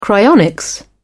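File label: "cryonics", British English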